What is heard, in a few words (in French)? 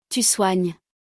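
"tu soignes", French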